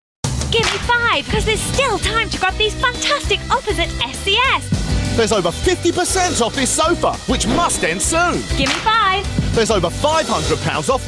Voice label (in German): Eng UK Lebendig